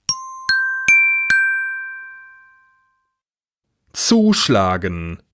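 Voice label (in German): "zuschlagen", German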